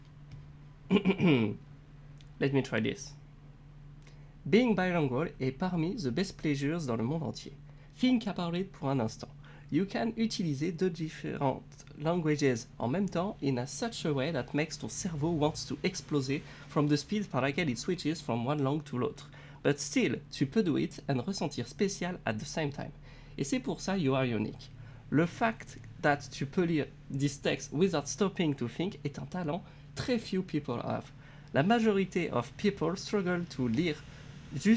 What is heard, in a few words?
franglais